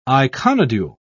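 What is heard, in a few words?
"iconodule", British English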